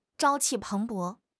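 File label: "朝气蓬勃", Mandarin Chinese; HSK6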